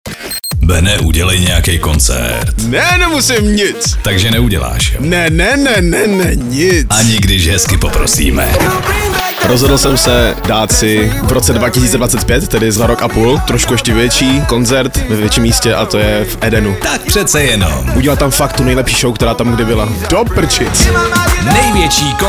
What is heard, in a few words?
liner